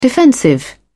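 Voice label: "defensive", British English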